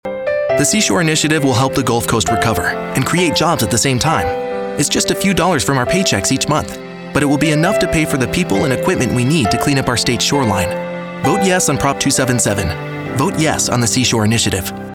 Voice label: Political Issue Ad Hope